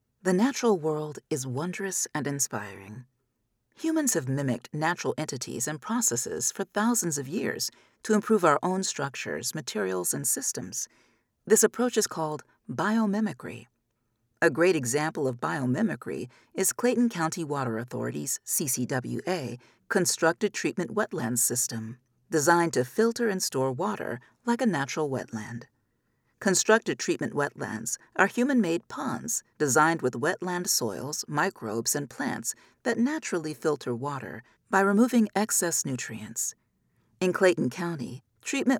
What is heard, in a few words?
Audio Description